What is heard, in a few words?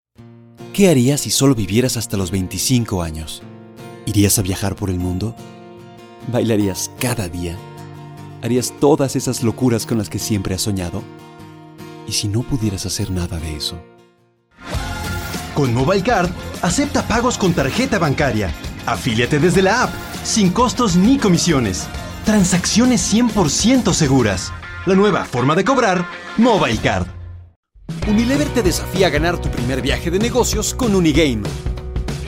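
Latin American Spanish, General; GoLocalise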